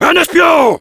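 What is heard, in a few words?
Heavy cloaked spy, French; "Un espion !"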